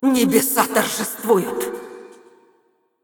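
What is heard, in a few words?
Russian assist; VO; Kayle; bloom minigame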